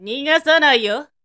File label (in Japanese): zyosei nigasanaiyo